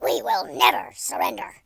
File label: leave me alone, War General